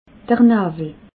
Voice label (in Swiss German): "nawel", alsace